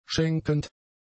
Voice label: "schenkend", German; adjectives